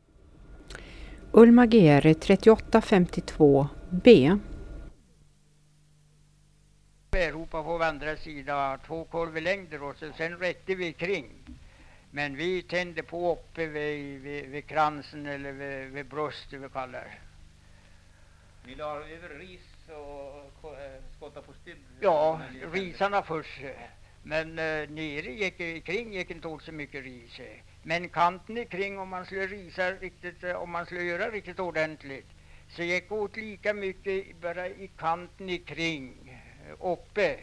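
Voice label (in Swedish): Edsbro Uppland, dialekter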